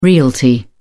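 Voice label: "realty", British English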